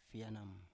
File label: "fienam", Biak